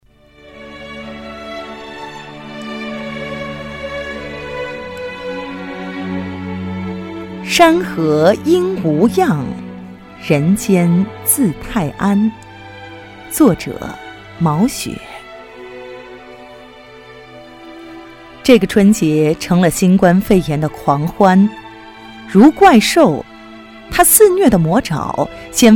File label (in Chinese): 诗歌朗诵